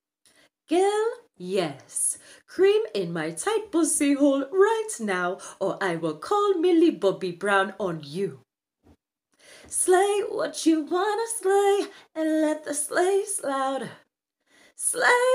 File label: girl yes